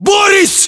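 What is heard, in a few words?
"boris", battlechatter, Russian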